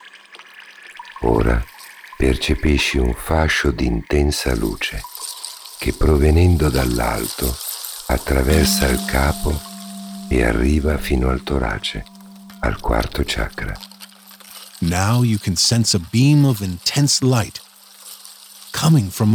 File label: Two voices